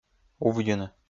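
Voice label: "увйыны", Komi, male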